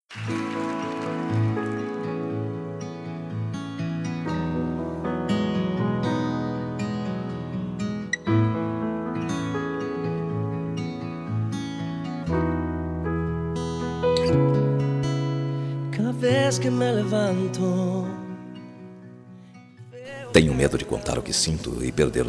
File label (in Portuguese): MENSAGEM ROMANTICA MASC, Telemensagem Romântica